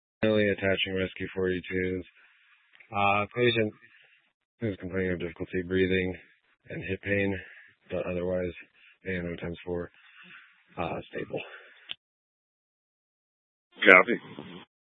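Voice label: Incident Tactical, scanner audio recording; Incident Tac 1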